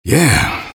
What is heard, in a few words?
eng taunt head end; vo; taunts; tf2; engy